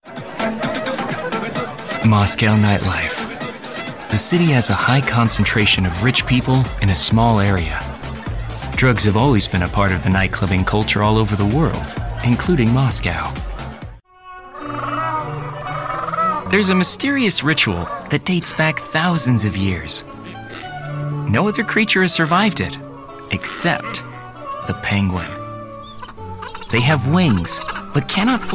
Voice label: Documentary